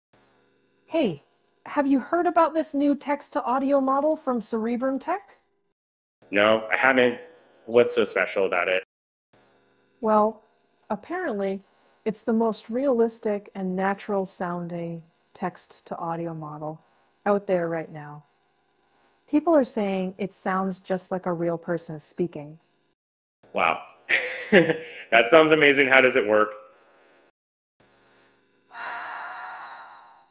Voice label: dialog